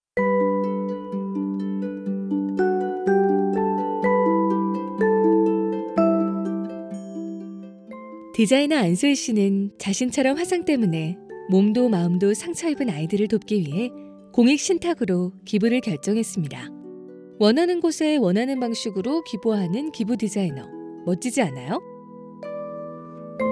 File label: calm and warm